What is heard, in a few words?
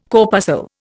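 "corpuscle", Indian English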